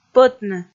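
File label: "пӧтны", Komi, female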